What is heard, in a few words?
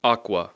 "aqua", English